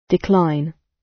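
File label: "decline", British English; Time, history; Thời gian, lịch sử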